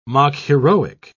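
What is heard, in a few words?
"mock-heroic", British English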